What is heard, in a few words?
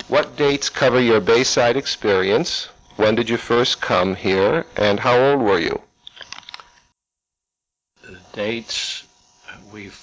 Noise Removed, Oral History